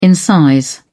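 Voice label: "incise", British English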